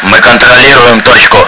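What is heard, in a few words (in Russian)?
мы контр точку, radio; svencoop; paranoia; bolt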